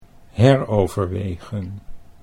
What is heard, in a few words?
"heroverwegen", Dutch